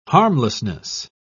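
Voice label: "harmlessness", British English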